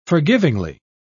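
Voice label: "forgivingly", British English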